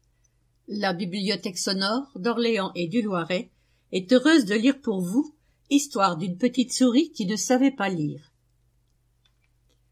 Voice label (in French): souris, A l'écoute des contes, Histoire d'une petite souris